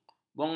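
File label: "bonglai", Malay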